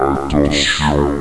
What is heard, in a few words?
Attention echo